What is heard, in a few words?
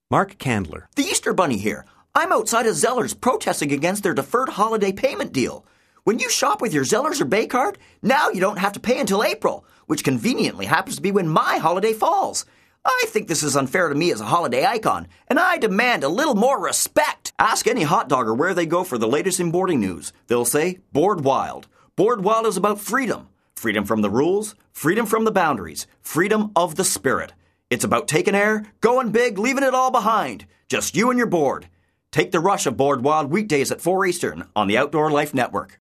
commercial male